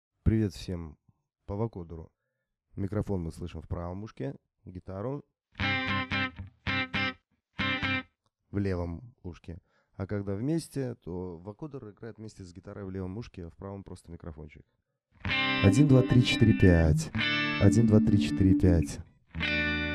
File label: vocoder; line6 pod hd500